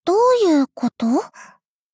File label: doubt